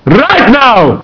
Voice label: mumble; svencoop; cracklife; gman